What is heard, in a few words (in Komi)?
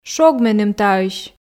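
"шог меным таысь", Komi, female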